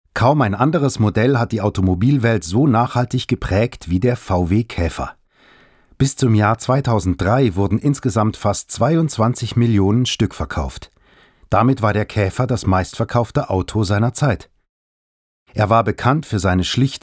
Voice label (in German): Kommentar Freundlich